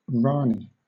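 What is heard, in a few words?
"rani", British English; pronunciations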